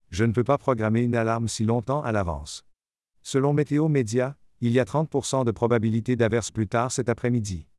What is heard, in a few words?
fr CA new voice; Alexa